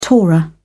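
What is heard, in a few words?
"torah", British English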